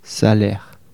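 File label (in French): "salaire" French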